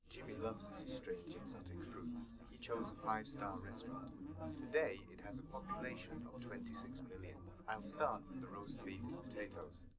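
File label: male3 live recording 130ms 5cm, underdetermined; SiSEC11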